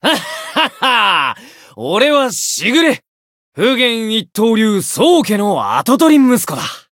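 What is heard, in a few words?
voice story, Japanese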